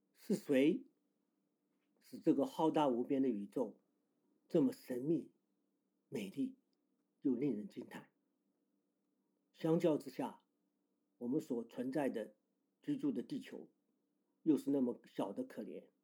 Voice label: mandarin